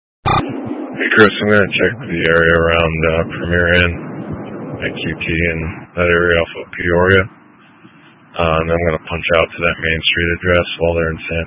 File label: Mutual Aid-Intersystem Interop A, scanner; AMBER Alert